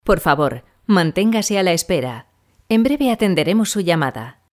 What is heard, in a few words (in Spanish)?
centralita espera mensaje